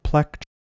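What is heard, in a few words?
"plekt", Proto-Indo-European; eastern origins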